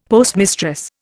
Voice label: "postmistress", Indian; Dictionary